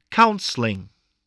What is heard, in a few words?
"counseling", voice spelling